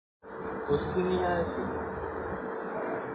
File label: Voice Note